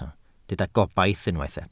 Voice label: "gobaith", Welsh